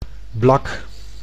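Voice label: "blak", Dutch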